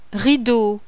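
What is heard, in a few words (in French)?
"RIDEAU", French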